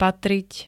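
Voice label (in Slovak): "patriť", Slovak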